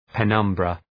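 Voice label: "penumbra", English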